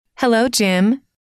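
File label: hello, female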